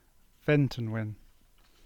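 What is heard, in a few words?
"Ventonwyn", English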